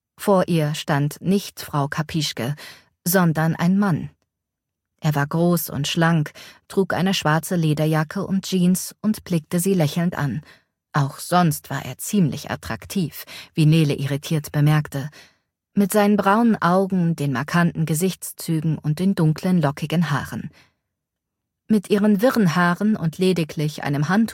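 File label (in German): Historisch Urlaub Romance, Hörbücher; Stimmen Weiblich; Walpurgistage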